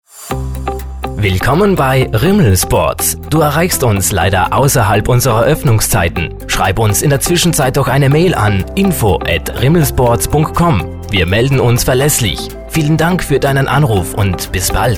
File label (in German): Sports Nachtansage; Riml; telefonansagen tourismus